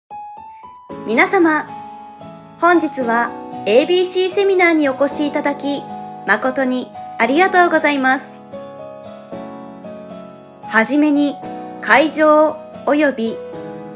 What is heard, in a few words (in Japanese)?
ナレーター; MC・司会・ナレーター事務所COA（コア）